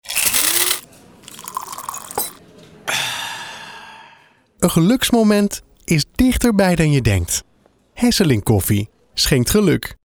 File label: Koffie Commercial; Hesselink Koffie